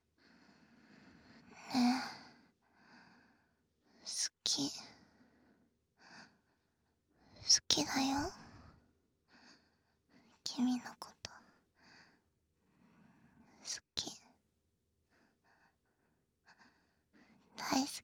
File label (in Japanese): 囁き淫語連呼; SEあり